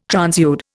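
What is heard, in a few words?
"transude", Indian English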